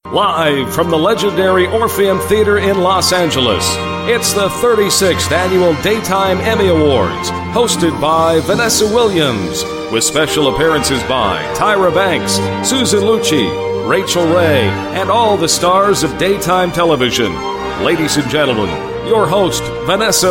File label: Gala Event Announcer